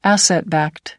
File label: "asset-backed", us